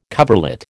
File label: "coverlet", American